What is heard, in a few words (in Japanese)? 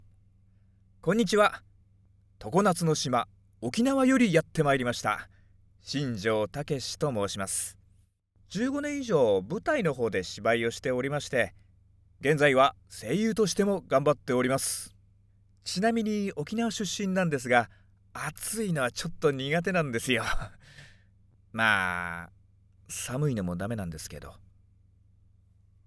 自己紹介（セリフ）, ナチュラル